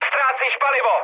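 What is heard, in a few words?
tank damaged, Czech, mood med; War Thunder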